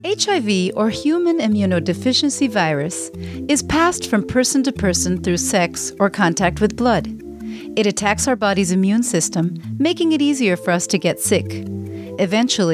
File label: English HIV Information; English - Medical Narration